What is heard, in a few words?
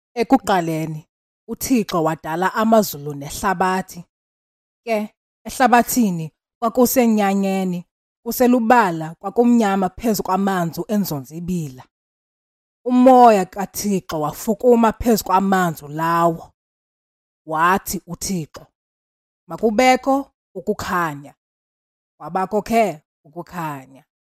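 Xhosa general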